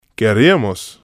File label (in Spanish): querríamos, Spanish